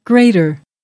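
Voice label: "grater", English word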